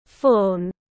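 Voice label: "Fawn", English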